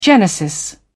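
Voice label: "genesis", British English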